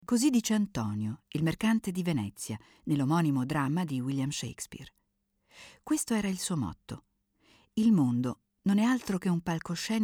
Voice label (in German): Audioguide; Juedisches Museum